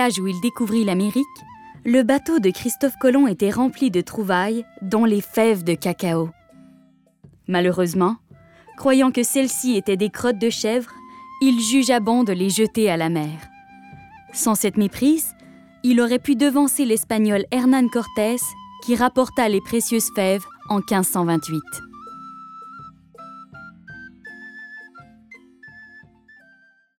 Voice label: Narration Chocolat